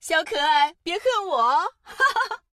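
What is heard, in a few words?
Traditional Chinese; 回声; 守望先锋2; 终极技能; 小可愛，別恨我喔！哈哈。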